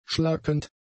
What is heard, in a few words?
"schlackend", German; adjectives